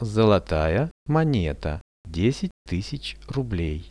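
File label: "gold coin 10000 rubles", Russian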